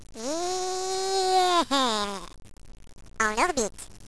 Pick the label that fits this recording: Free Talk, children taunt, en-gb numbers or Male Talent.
children taunt